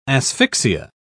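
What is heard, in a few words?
"asphyxia", English, British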